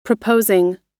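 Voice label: "proposing", American English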